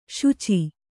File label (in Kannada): "ಶುಚಿ", kannada